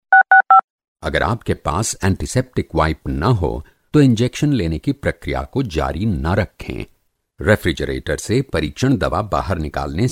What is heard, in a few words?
hindi, foreign, man